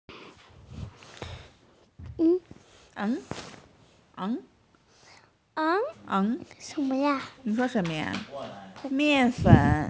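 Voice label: "flour", female; mian4fen3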